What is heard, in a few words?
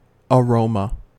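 "aroma", US English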